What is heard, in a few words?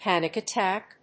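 "panic attack", English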